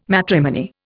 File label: "matrimony", Indian